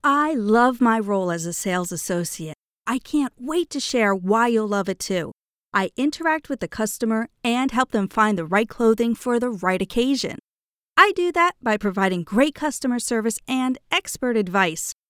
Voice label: Presentations Educational, English, North American; VoiceBunny